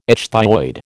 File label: "ichthyoid", American